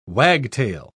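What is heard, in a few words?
"wagtail", English word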